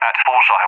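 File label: "at bullseye", English, UK; DCS; Allied Flight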